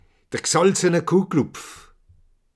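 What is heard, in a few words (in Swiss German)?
"gsalzene kuejelhopf", Alsatian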